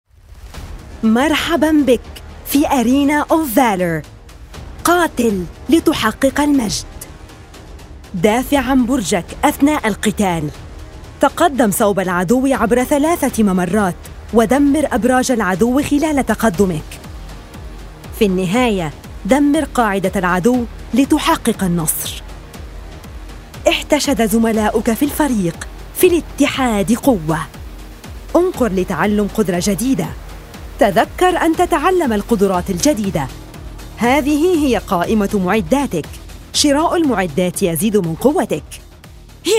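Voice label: Modern standard Arabic Gaming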